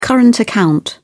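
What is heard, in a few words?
"current account", British English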